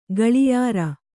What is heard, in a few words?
"ಘಳಿಯಾರ", Kannada